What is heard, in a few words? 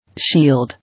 "Shield", English